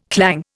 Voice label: "clang", Indian